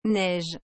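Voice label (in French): "neige", French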